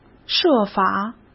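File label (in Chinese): "设法", Mandarin Chinese; hsk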